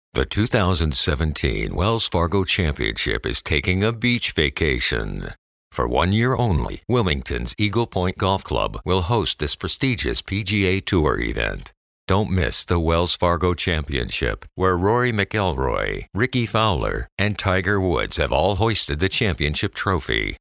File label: PROMO VOICE OVER